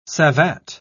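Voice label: "savate", English, British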